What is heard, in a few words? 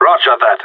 affirmative, radio; GSG9